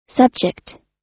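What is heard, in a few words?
"subject", US English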